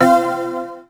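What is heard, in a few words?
"yes", male; XBOX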